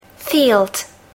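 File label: "field", English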